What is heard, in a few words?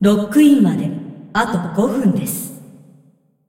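announcer female, pt br; ja jp; Clash; tournaments lockin 5min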